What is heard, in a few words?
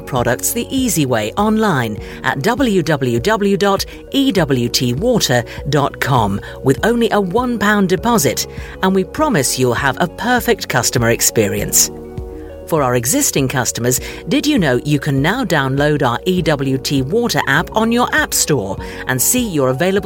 on-hold